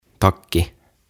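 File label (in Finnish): "Takki", suomeksi; OTAVA KONSERNI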